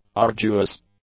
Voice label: "arduous", English; adjectives

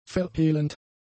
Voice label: "verhehlend", German; adjectives

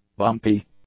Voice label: "bumpy", English; adjectives

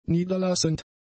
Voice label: "niederlassend", German; adjectives

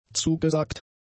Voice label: "zugesagt", German; adjectives

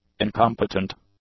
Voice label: "incompetent", English; adjectives

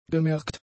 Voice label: "gemerkt", German; adjectives

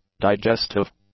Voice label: "digestive", english; adjectives

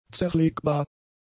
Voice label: "zerlegbar", German; adjectives

